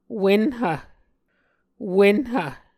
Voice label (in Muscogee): "wenhv", Muscogee